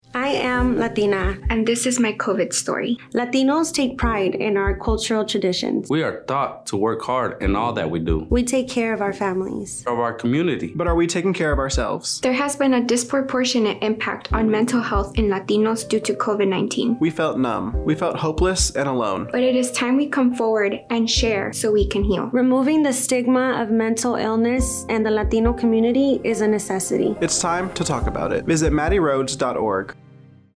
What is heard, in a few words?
English Radio Ad